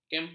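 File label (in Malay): "kempa", Malay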